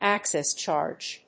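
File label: "access charge", English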